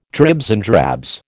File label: "dribs and drabs", American